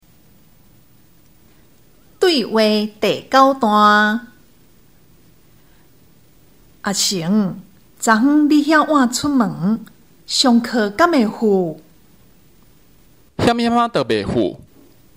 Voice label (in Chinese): 對話, 閩南語; 閩南語語言能力認證; 閩南語能力測驗題本